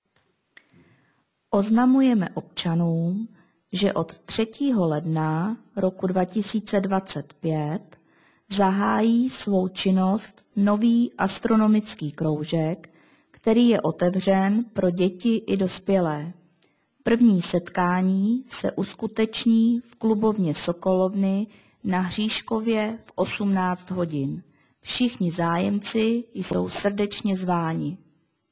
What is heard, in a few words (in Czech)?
hlaseni; rozhlas